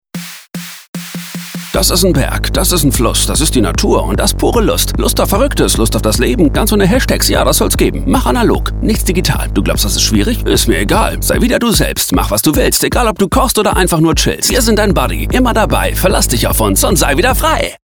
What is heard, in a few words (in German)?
werbung markant cool musik